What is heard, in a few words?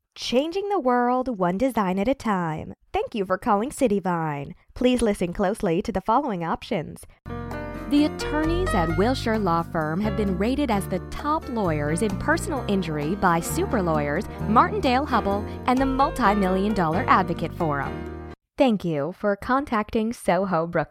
Eng IVR